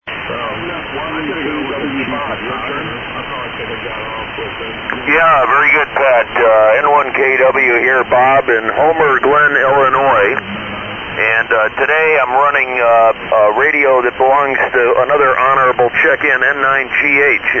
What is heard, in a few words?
Hallicrafters Hurricane & Hand Mic; Homer Glen,IL.